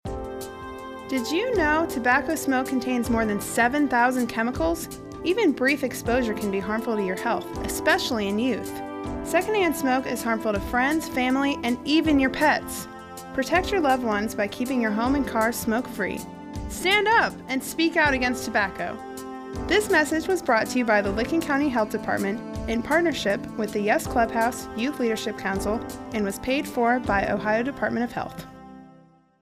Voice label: Tobacco Prevention Spot; Licking County Health Department